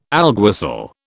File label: "alguacil", British